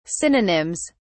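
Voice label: "synonyms", British English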